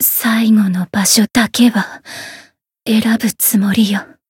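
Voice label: Voice win barely, Japanese; violet lancer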